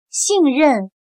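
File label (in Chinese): "信任" Mandarin Chinese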